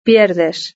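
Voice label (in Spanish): "pierdes", Spanish; verb-conjugator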